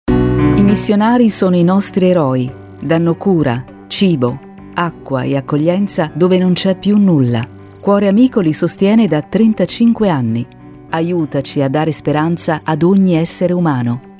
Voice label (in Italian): spot radiofonico; cuoreamico